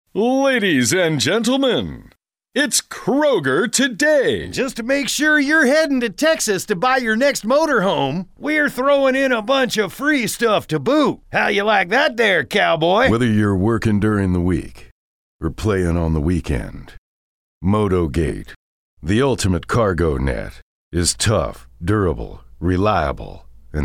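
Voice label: US, commercial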